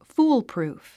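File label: "foolproof", English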